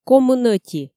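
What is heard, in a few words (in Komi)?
комын ӧти, Komi, female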